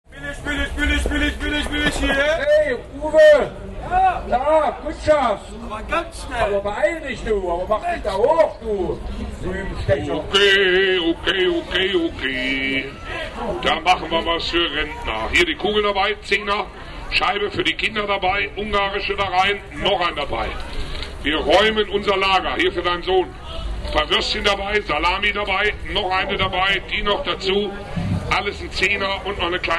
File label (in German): marktschreier